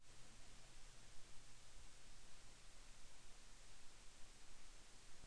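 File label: "rub", spoken word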